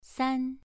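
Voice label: "san" Mandarin Chinese, second tone, pronunciation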